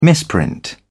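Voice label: "misprint", British English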